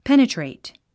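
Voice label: "penetrate", English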